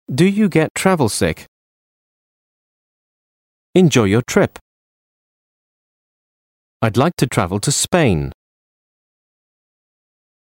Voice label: English Sentences